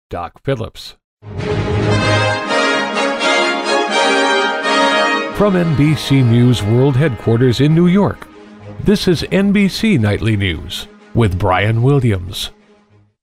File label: Nightly News Open; NBC; Auditions